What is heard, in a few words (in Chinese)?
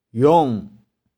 "yung1", 玉環